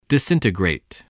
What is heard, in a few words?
"disintegrate", spelling